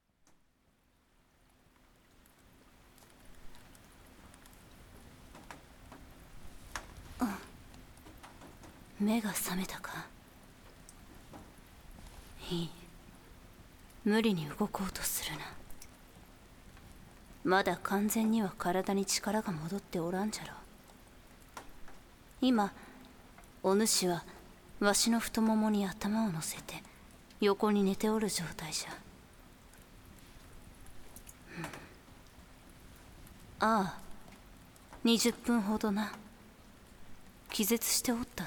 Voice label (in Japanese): 雨降りバージョン, ASMR